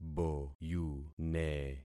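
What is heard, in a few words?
"boyune", concatenated syllables; Affixing experiment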